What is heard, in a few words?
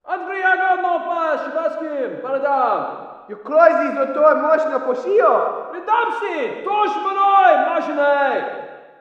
walla rus mountain conv